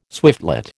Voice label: "swiftlet", American